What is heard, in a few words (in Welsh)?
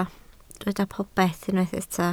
"popeth", Welsh